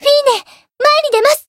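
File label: variable chant, Japanese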